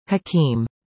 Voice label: "hakiem", english; indonesian boy names